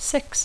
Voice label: "six", English